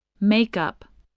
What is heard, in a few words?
"makeup", spelling voice